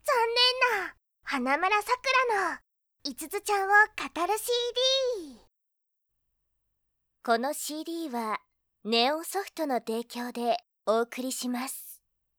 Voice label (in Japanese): タイトルコール, ASMR